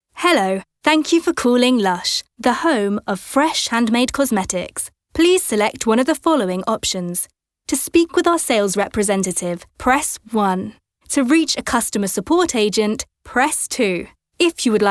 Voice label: english british ivr